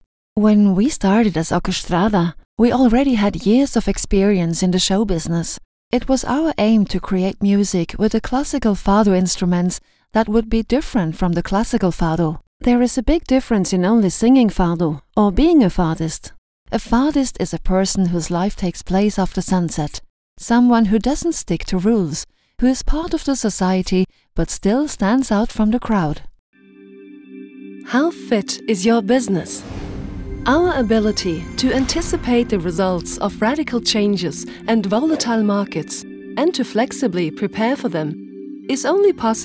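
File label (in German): UK English, Kommentar, Neutral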